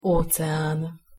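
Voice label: "ocean", Hungarian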